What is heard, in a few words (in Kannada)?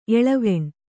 "ಎಳವೆಣ್", Kannada